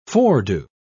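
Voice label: "foredo", en, uk British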